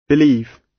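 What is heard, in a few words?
"believe", British English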